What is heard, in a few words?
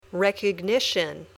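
"recognition", English word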